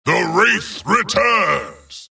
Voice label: wraith death; dota; skeleton king